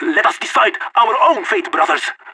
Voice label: pep talk; player vo leet